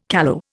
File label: "callow", Indian